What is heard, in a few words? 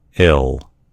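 "ill", American English; gcompris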